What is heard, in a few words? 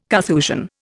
"Carthusian", Indian